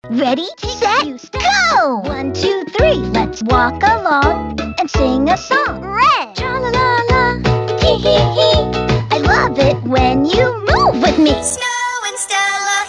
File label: english american